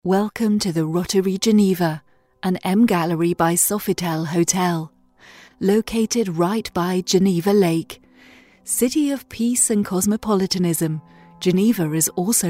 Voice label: english british ivr

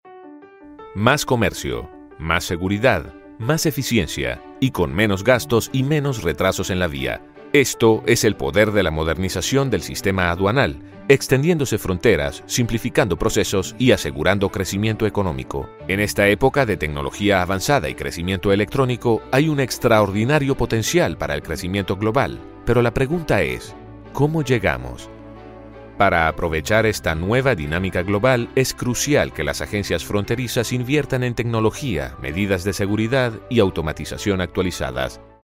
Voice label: spanish latin american corporate videos

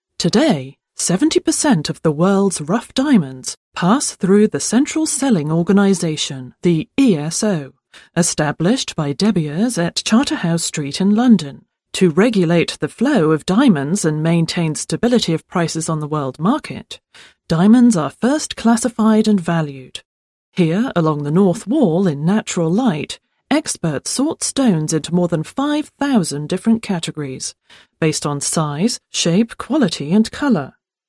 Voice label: english british documentaries

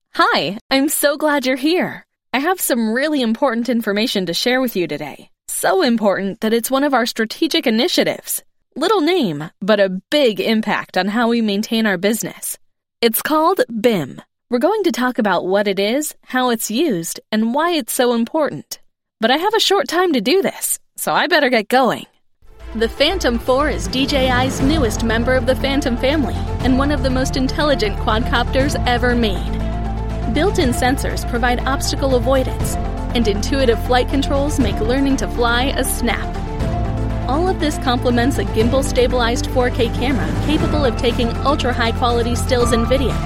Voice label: english american narration

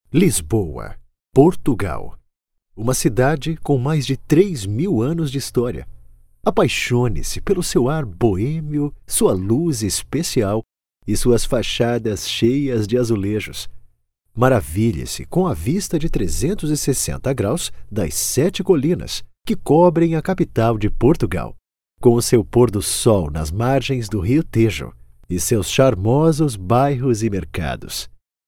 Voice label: portuguese brazilian documentaries; brazilian portuguese voice actors

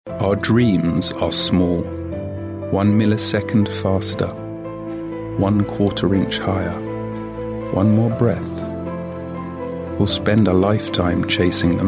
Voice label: english british commercial, authoritative

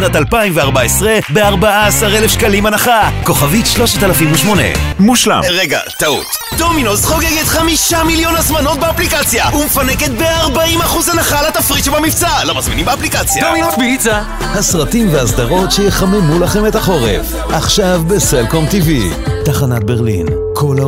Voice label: hebrew commercial, pleasant voice

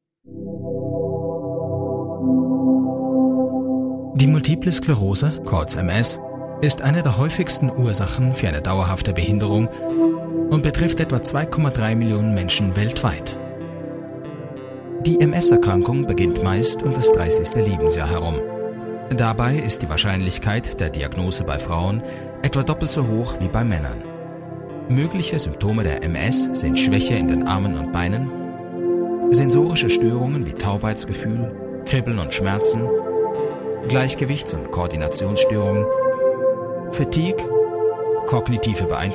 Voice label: german e-learning; e-learning voice actors

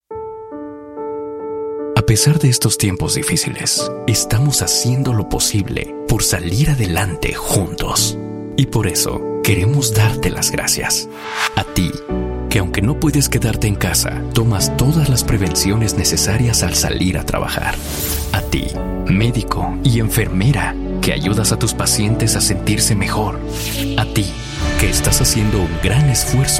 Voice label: spanish latin american promos

